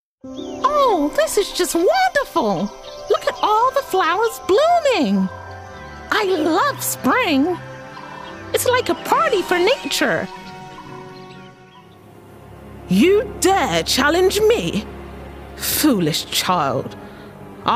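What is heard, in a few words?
British English Animation; GoLocalise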